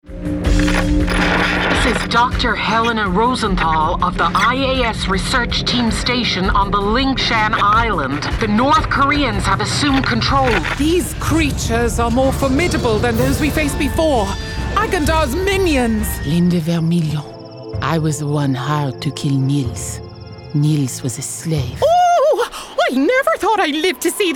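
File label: Irish female montage games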